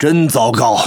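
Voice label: Speech Quick Commands Caramba, Chinese; World Of Warships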